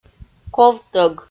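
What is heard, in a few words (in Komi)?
"ковтӧг", Komi, female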